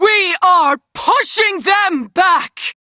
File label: Crew Enemy Defeat, Female; Warframe; Railjack Crew; New Loka